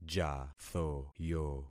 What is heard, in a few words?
"jathoyo", concat syllables; Affixing experiment